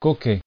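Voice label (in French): "coquet" French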